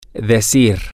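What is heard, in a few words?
to Tell Say, Spanish, male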